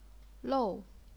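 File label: "lou", fourth tone, chinese pinyin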